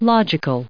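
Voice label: "logical", US English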